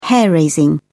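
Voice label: "hair raising", British English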